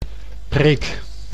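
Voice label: "preek", Dutch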